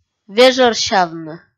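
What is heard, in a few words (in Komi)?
"вежӧрсявны", Komi, female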